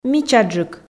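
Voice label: "мичаджык", Komi, female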